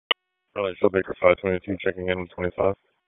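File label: Police Southeast District Dispatch; Raleigh; p25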